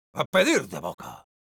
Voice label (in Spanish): Sniper positive vocalization, es